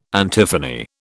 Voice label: "antiphony", British